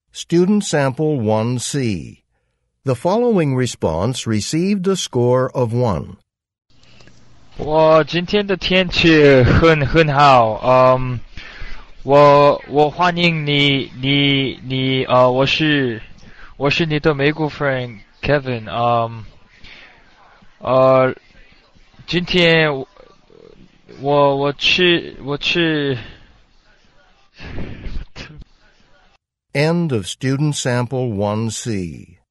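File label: chinese conversation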